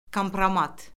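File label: "kompromat", Azerbaijani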